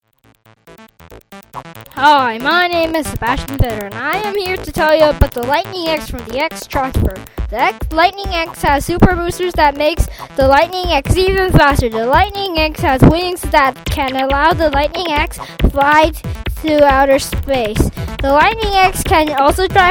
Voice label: commercial